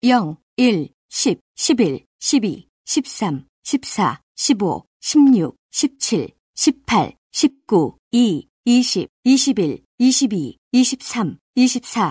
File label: Dealer Voice, sk, Roulette; real Dealer European Roulette; ko